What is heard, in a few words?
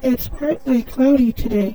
high voice; MorphVOX Pro